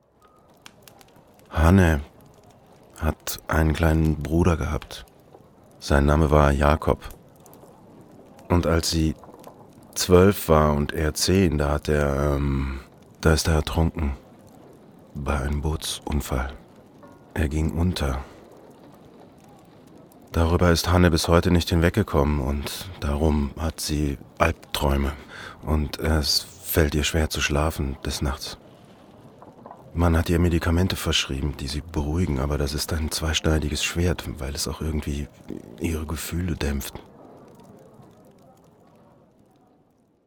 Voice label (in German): synchron monolog